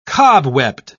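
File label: "cobwebbed", British English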